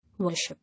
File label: "Worship", English